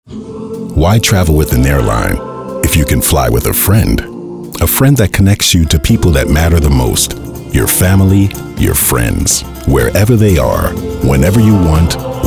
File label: radio; ba afrika network uk